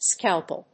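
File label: "scalpel", English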